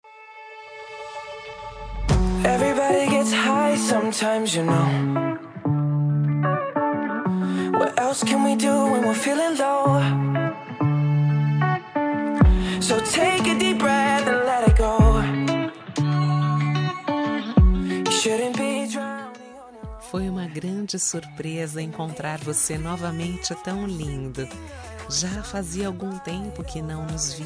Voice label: reencontro fem, Telemensagem Reencontro – Voz Feminina

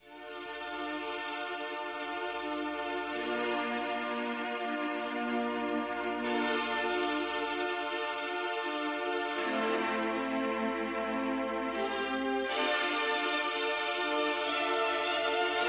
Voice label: nora ou genro fem, Voz Feminina; Aniversário de Genro; Telemensagens para todas as ocasiões

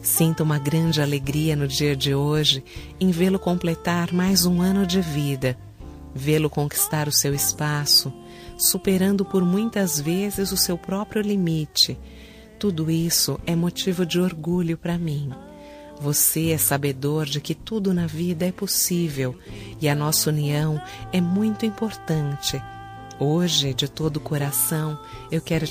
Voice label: aniv filho fem, Voz Feminina; Aniversário de Filho; Telemensagens para todas as ocasiões

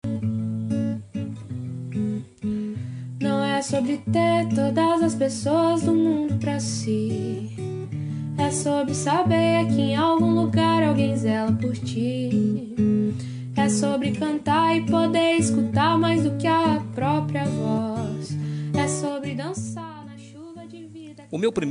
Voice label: distante masc linda, voz masculina; Dia dos Pais

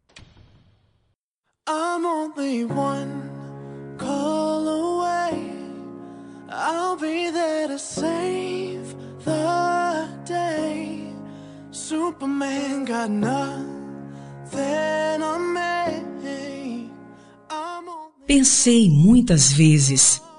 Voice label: desculpa fem neutra

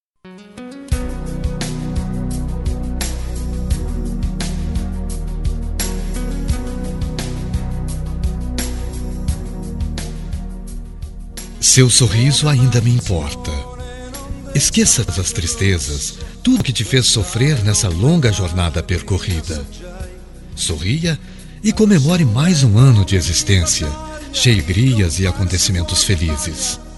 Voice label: Telemensagem Aniversário Ex Esposa

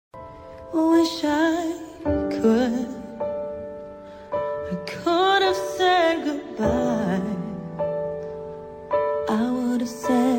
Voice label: dia dos namorados amante, voz feminina; dia dos namorados amantes voz feminina

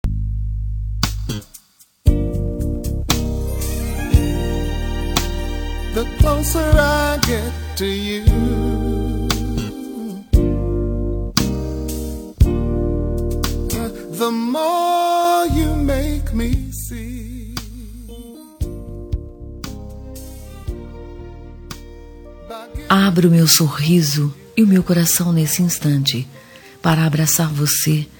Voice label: carinho fem, Telemensagem Carinho – Voz Feminina

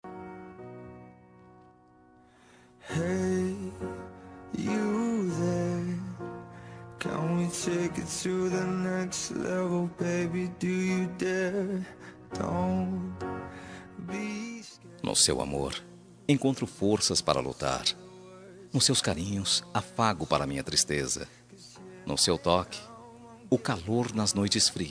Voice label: ano novo masc, Ano Novo – Romântica – Voz Masculina; Telemensagens

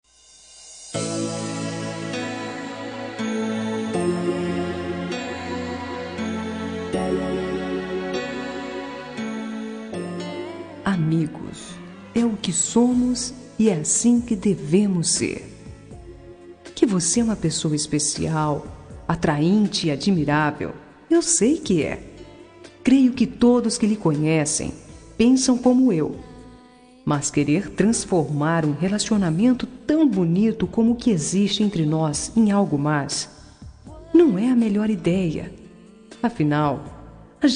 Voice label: apenas bons amigos, Telemensagem Resposta – Voz Feminina

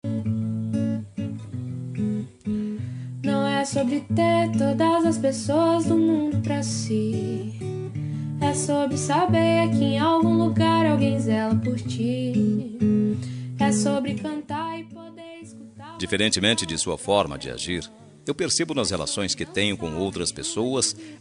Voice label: sogro masc, voz masculino; Dia dos Pais

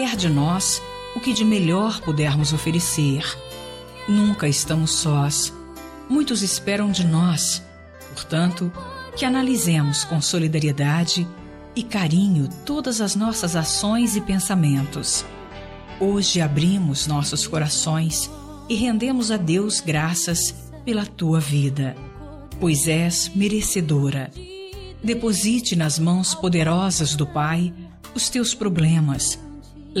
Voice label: natal evangelica, Natal para Mãe – Voz Feminina; natal fez evangelica

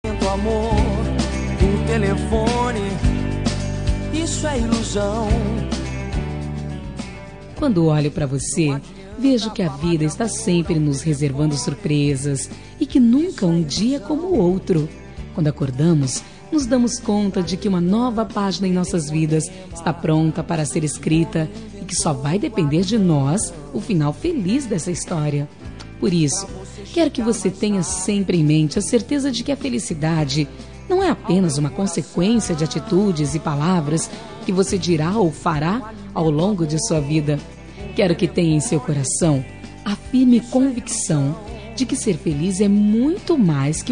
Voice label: Voz Feminina; Aniversário de Sobrinho

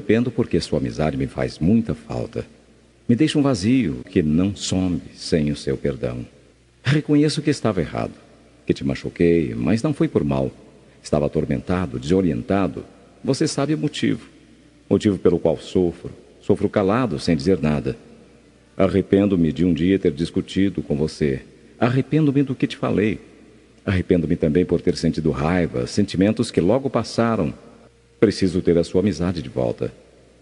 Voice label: desculpa geral masc, Telemensagem Desculpas – Voz Masculina; Desculpas para Amigo

